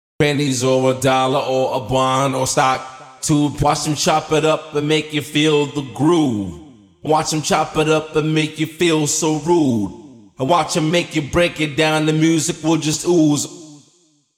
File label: Vocal Wet